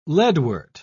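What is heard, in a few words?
"leadwort", English, British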